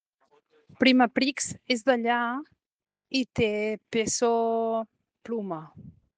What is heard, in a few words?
Voice message